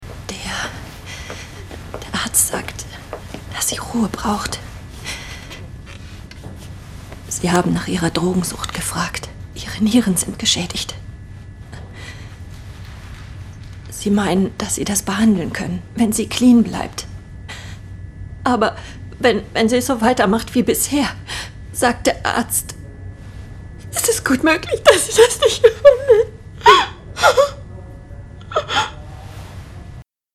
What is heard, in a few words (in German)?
Aufgeregt